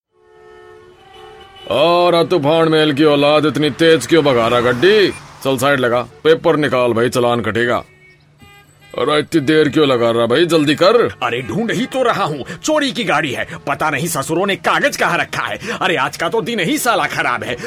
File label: Radio Spot All Voice; Chatar Patar